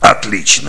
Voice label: Cheer; Kingpin Fargus